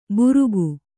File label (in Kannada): "ಬುರುಗು", Kannada